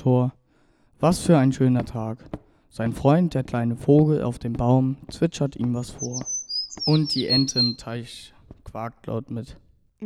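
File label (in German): Hörspiel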